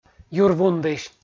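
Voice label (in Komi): "юр вундысь" Komi, male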